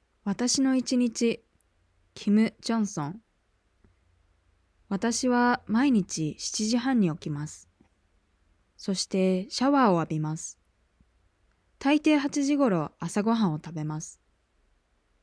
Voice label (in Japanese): Nakama reading slow; OJAD